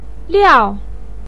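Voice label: "liao", Mandarin pinyin, fourth tone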